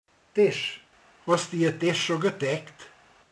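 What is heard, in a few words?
"asztal", Hungarian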